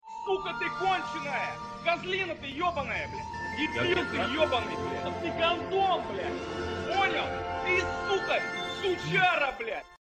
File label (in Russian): reklama; boiaryshnika